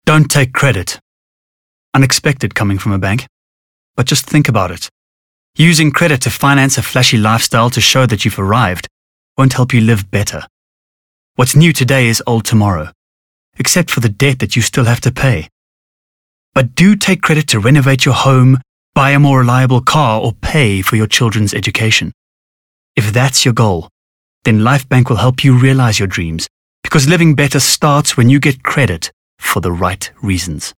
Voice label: English Corporate

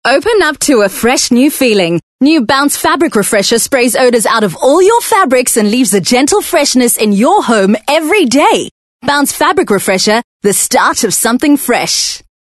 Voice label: English Bright

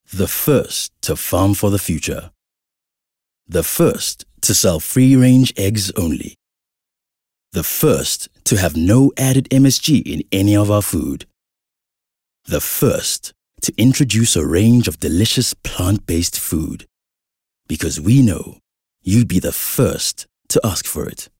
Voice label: English Softsell

